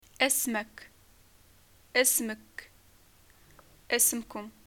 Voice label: what is ur name, Arabic